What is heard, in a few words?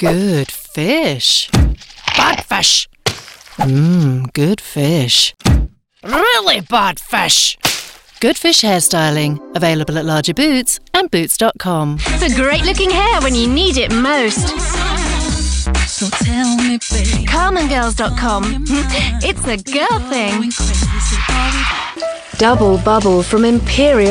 Voiceover Adverts with Intro